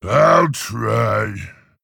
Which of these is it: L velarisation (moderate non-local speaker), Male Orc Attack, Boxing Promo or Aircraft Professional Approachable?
Male Orc Attack